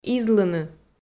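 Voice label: "излыны", Komi-Zyrian, female; audio dict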